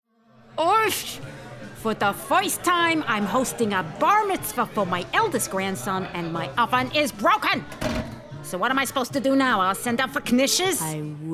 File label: Character Montage